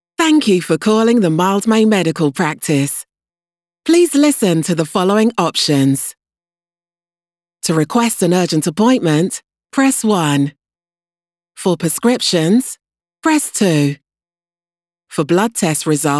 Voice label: english british ivr

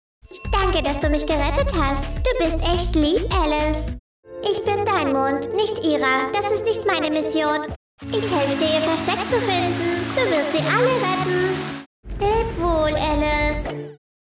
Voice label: german animation

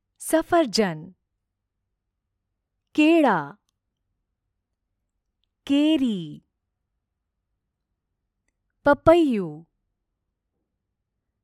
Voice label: gujarati commercial, voice actors; professionelle indische Sprecherin

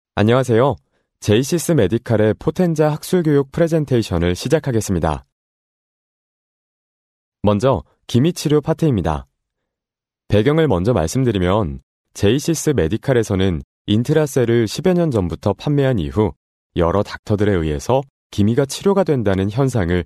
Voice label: korean narration

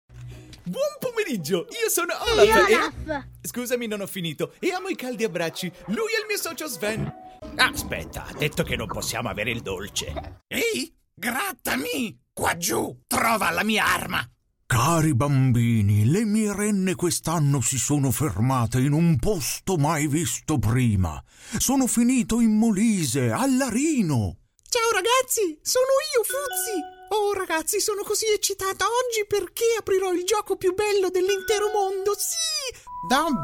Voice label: italian animation, voice actor; Italy

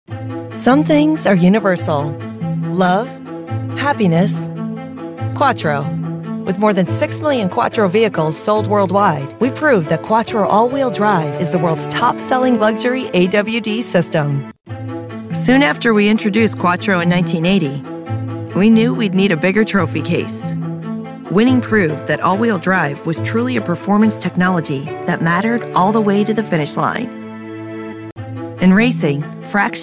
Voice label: english american automotive

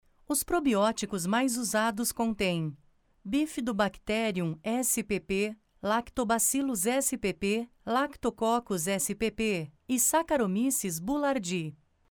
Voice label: portuguese brazilian medical narration; voice actor

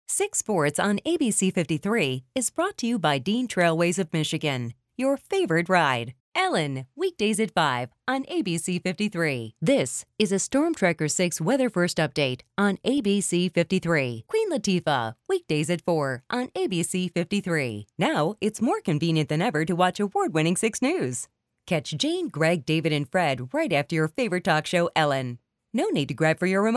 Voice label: english american tv shows

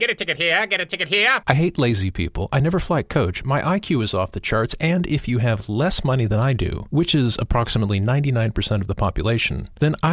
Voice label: English American animation

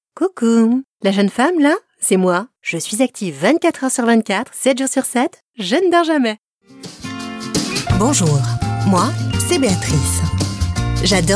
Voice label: french others

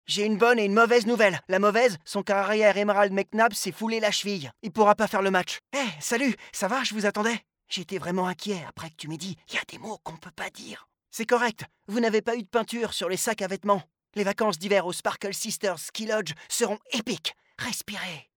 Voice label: french animation

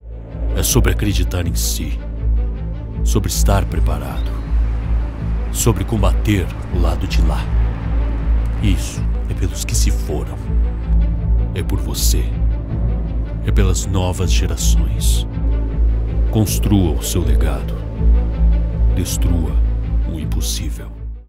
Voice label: portuguese brazilian promos

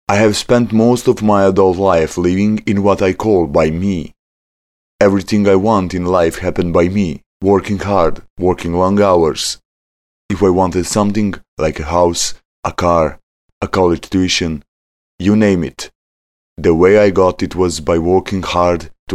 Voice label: english commercial

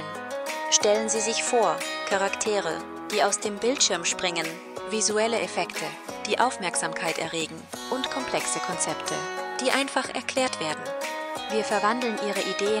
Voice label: german animation

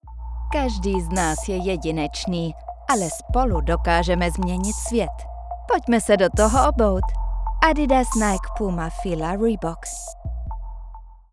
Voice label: czech animation

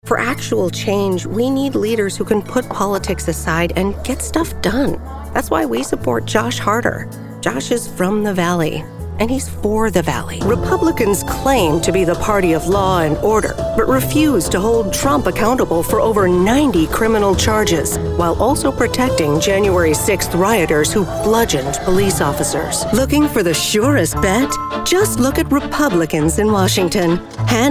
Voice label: english american political ads

